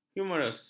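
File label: "humerus", Malay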